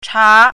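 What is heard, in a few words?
"cha2", Mandarin Chinese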